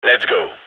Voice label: radio lock n load; gign

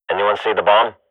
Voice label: radio bot query bomb, swat; Counter Strike Model Pack; CS:GO

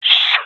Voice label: request quiet, gendarmerie male; vo

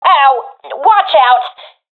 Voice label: taking fire friendly, professional fem; Counter Strike Model Pack; CS:GO

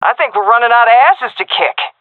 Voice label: round winning, vo fem; agents; Source Model Packs; Counter Strike Model Pack; CS2

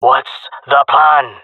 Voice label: request plan, jungle male; agents

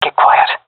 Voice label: request quiet, seal fem; vo; Counter Strike Model Pack; CS:GO

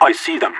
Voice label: radio enemy spotted; Counter Strike Model Pack; CS:GO; leet

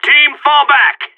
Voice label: radio bot fall back; Source Model Packs; Counter Strike Model Pack; CS2; seal

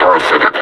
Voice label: "parasitic", suppressor; Half Life Alyx; combine

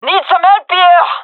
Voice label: request help, gendarmerie fem; vo

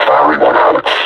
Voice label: announce attack, combine suppressor

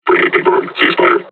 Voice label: taunt, combine charger; Half Life Alyx

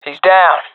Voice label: sees enemy killed, swat agents; Source Model Packs; Counter Strike Model Pack; CS2; swat epic

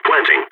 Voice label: planting bomb, balkan; vo

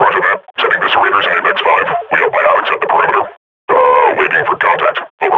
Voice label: idle, grunt; Half Life Model Pack

